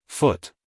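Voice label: "foot", American English, male; everyone-can-use-english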